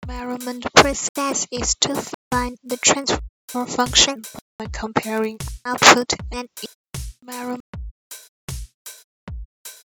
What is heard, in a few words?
rap output